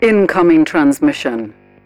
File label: advisor notification incoming transmission, militarist; Stellaris; ethics